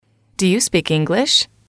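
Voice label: "do you speak English", English, female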